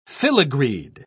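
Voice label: "filigreed", English, British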